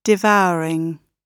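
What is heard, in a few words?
"devouring", British English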